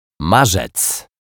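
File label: "Marzec", Polish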